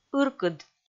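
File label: "ыркыд", Komi, female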